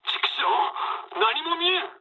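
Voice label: searchlite mood low, speech japanese; War Thunder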